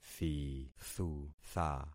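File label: "thithutha", concatenated syllables; Affixing experiment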